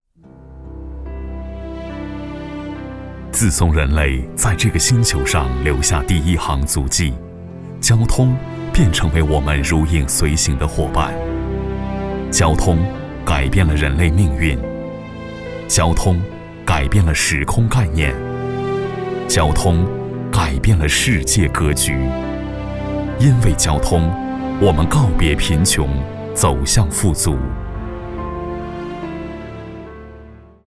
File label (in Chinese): 男 低沉旁白感觉 交通宣传